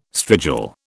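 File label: "strigil", British English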